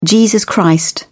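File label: "Jesus Christ", British English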